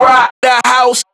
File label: Vocals